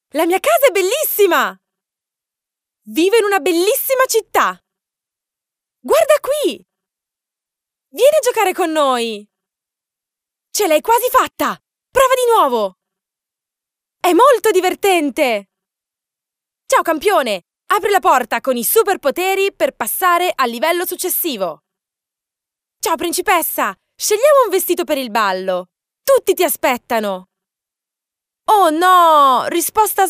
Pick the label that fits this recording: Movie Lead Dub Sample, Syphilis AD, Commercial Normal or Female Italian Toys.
Female Italian Toys